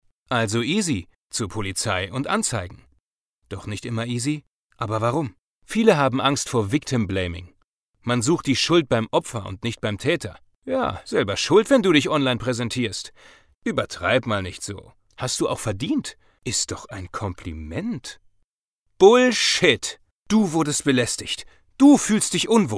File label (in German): Erklaerfilm